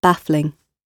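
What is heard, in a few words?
"baffling", British English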